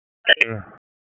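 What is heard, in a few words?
Public Safety Tactical, p25; wake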